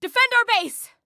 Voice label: Defend Base, Female; Female 02